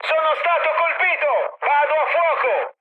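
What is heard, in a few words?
Italian on fire mood high, speech; War Thunder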